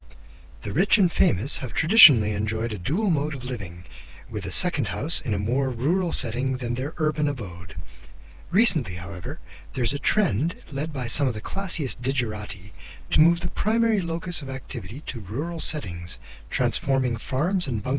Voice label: male speech